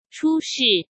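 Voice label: "出示" Mandarin Chinese; chinese-flashcards; hsk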